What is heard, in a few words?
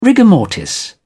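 "rigor mortis", British English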